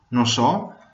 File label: i dont know, venetian; No sò